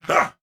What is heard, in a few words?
Attack, Corpus Venus Male